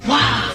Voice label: "wow" kid